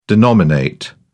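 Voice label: "denominate", British English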